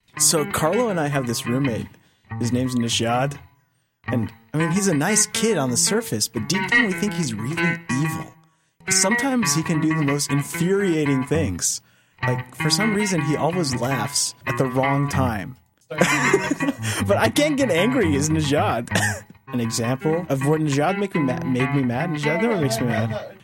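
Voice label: story and guitar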